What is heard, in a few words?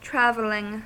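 "traveling", US English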